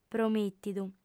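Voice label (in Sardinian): "promìtidu", female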